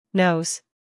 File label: "nose" en us female; everyone-can-use-english